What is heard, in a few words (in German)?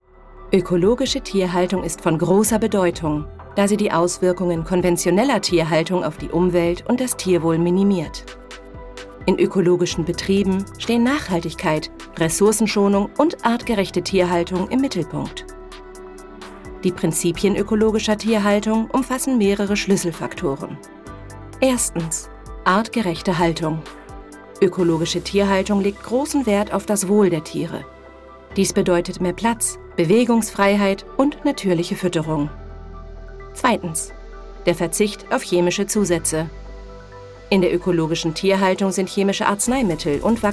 Industriefilm